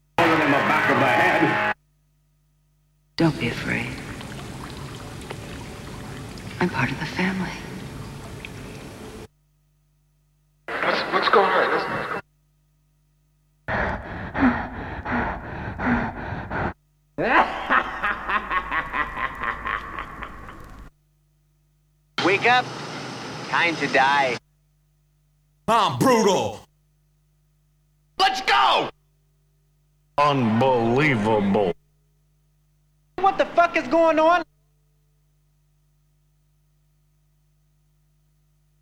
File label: Vocal Drops; Kniteforce; 1000 Samples Volume 1